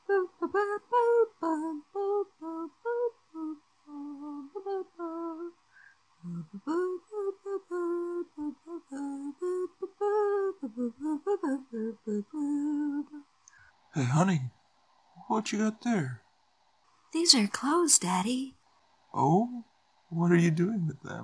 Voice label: newscaster